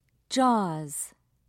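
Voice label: "jaws", British English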